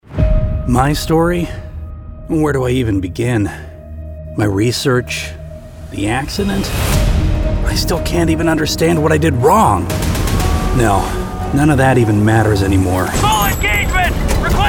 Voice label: CHARACTER GAMES